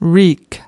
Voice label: "reek", us